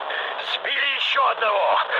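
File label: player killed enemy, mood low, Russian; War Thunder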